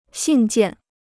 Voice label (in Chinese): "信件", Chinese